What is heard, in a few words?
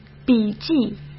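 "笔记", Mandarin Chinese; chinese-flashcards; hsk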